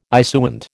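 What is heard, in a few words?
"issuant", American English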